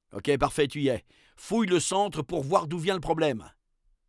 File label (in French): narrateur fouille le centre; La-Fabrik